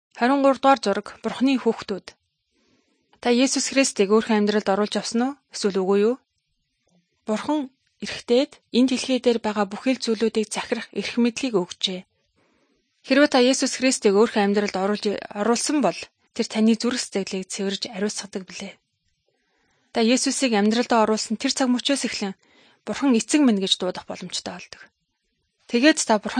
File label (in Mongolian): good news mongolian